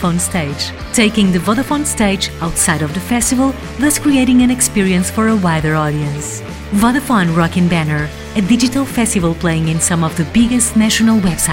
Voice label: female portuguese english with portuguese accent commercial; vodafone